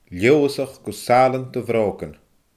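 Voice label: "Leoodhasach gu saailean do bhroogan", Scottish Gaelic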